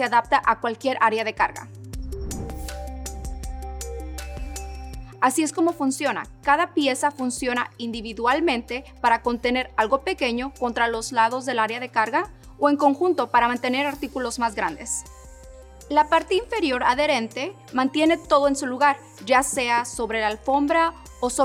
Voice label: Cargo Tech Spanish